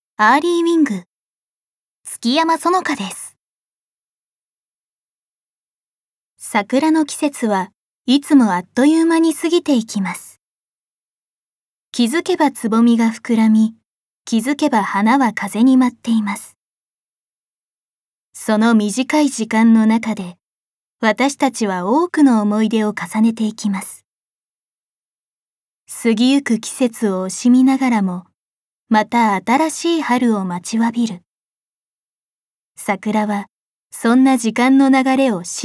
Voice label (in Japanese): ナレーションALL